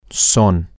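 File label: Spanish, they are permanent feminine, male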